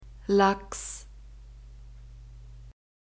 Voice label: "lachs", German

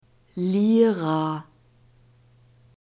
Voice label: "lira", German; masculine

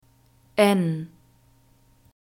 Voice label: "n", German alphabet

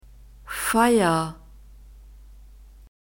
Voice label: "Feier", German; nouns gender feminine

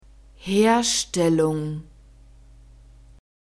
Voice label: "herstellung", German

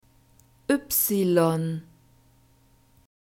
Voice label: "y", German alphabet

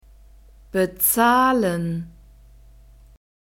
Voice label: "bezahlen", German

